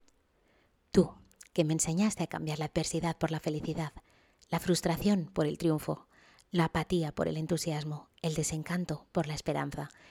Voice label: COMMERCIAL, Spanish, female